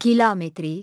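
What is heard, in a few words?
"kilometers", English; iOS 4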